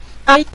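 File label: "dai", Mandarin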